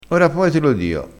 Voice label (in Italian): "ora poi", crespinese